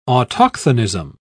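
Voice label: "autochthonism", British English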